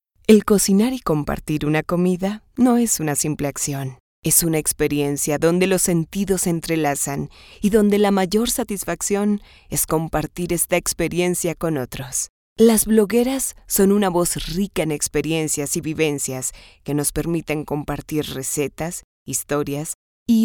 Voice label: spanish latin american documentaries